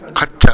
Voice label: "kaccha", minamiyamagata